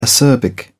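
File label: "acerbic", British English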